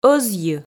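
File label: "ӧзйы", Komi, female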